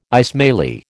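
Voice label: "Ismaili", American English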